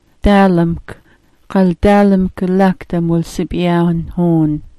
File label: "daalmk", smalgyax